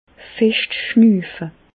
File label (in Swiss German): schnuufe, Bas Rhin; medecine; respirez fort